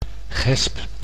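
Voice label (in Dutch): "gesp", Dutch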